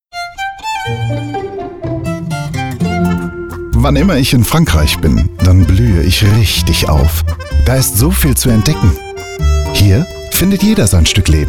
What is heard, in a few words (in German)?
Werbung Lebensmittel